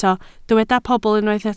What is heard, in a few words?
"pobl", welsh